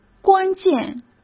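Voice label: "关键", Mandarin Chinese; hsk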